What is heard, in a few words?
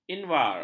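"invar", Malay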